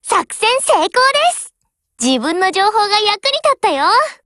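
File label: WIN Japanese, Voice; GF Alarm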